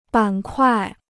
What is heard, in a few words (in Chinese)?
"板块", Chinese